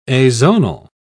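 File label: "azonal", British English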